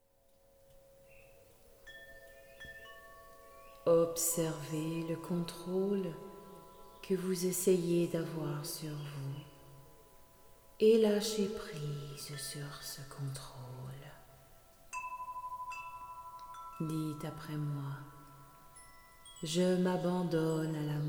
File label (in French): Meditation guidee pour re-centrer